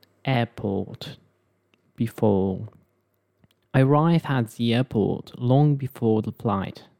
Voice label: "airport", angielski native speaker; o sound